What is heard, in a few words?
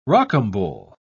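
"rocambole", British English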